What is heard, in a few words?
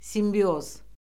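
"simbioz", Azerbaijani; Orfoqrafiya Lüğəti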